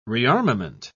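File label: "rearmament", British English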